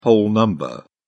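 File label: "whole number", British English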